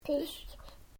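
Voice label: Male 2 year old Talk; Talking; Voice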